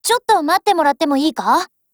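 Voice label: LOADING, Japanese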